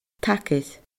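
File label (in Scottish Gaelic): "tagair", Scottish Gaelic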